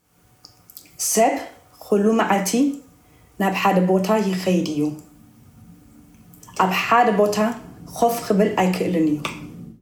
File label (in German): tigrinya eritrea